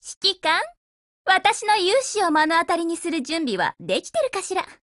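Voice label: Japanese, Voice; GF Alarm; RMB93Mod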